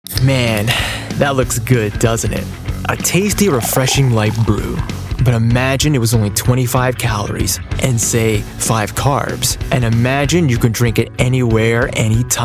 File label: Voiceover, Animation; new york